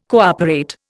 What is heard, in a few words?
"cooperate", Indian